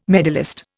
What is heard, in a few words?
"medallist", Indian